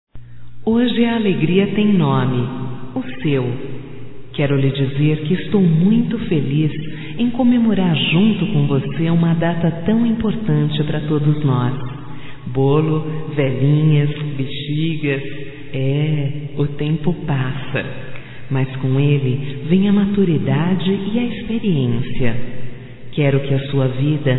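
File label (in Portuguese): voz - Aniversário irmão, fem; Telemensagens 2017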